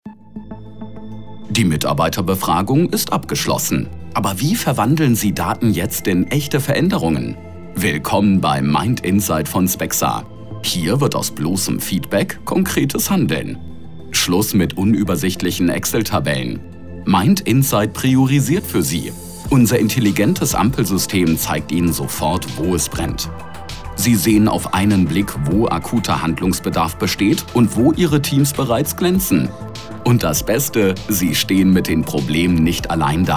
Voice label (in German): Imagefilm